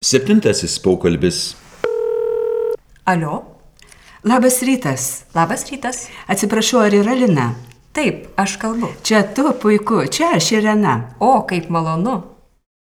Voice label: Dialog